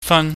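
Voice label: "fan", Cantonese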